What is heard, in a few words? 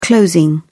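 "closing", British English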